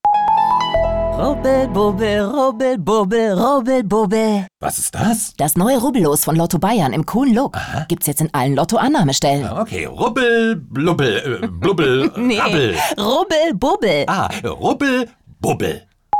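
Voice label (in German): Werbung Dynamisch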